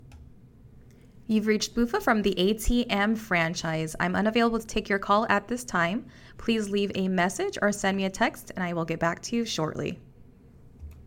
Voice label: ivr greeting